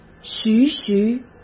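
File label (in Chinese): "徐徐", Mandarin; chinese-flashcards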